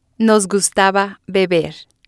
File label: "nos gustaba beber", Spanish